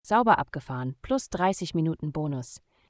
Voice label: autobahn success, voice de; logistik

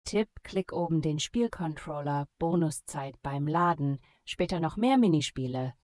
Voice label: minigame hint easy, voice de; logistik

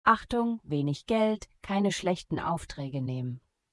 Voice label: balance low easy, voice de; logistik

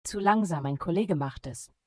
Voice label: minigame timeout easy, voice de; logistik

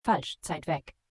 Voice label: verfahren wrong easy, voice de; logistik

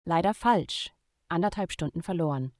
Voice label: verfahren wrong, voice de; logistik

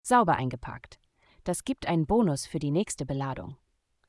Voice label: minigame perfect, voice de; logistik

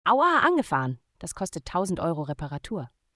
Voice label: minigame touch, voice de; logistik

